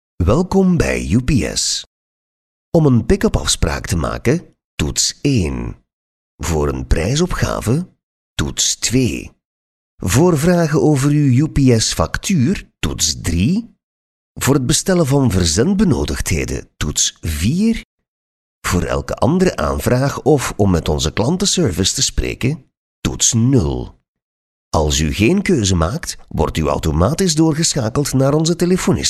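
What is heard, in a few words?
flemish m ivr, Flemish, male; dutch; voice demos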